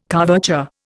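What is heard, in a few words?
"couverture", Indian English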